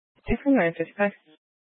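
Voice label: Police Dispatch, Scanner audio recording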